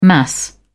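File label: "mass", British English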